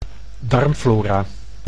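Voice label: "darmflora", Dutch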